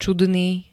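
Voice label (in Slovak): "čudný", slovenské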